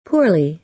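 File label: "poorly", American English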